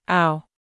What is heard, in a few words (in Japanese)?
母音auの発音